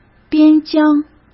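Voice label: "边疆", Mandarin Chinese; chinese flashcards; hsk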